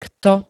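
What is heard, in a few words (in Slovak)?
"kto", Slovak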